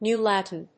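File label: "New Latin", English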